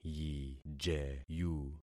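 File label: "yijeyu", concatenated syllables; Affixing experiment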